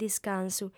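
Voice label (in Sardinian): "discansu", female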